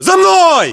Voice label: order move follow, ru; localized english

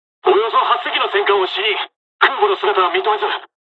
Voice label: japanese, dialogs japanese; War Thunder; first wave

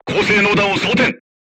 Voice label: voice message load HE, crew tanks japanese

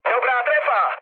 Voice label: bomb success, speech cze, mood low; War Thunder

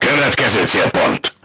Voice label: voice message target destroyed, hungarian

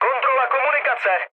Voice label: chat, speech Czech; War Thunder

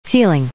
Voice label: Speech ENG A-10C VMU Messages Ceiling; DCS

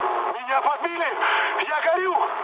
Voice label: on fire, mood med, speech ru; War Thunder

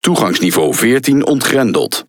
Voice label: NL Speech Access Level; World Of Warships

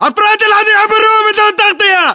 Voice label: threat infantry exposed, battle chatter, english

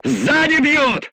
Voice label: voice message shot back, crew tanks russian

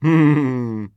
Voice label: scav mutter, voice; Escape from Tarkov; scav5

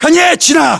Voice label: response ack norank yes, battlechatter ru; localized english

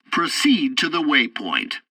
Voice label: tutorial landing preparation, english; War Thunder

